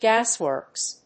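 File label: "gasworks", English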